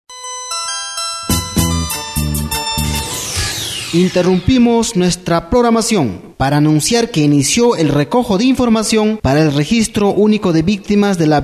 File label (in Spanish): spot castellano; RUV HUANTA